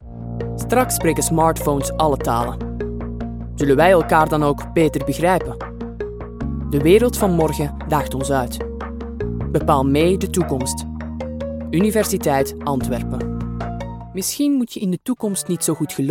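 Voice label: Flemish; Male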